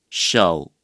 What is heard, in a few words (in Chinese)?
"手", Chinese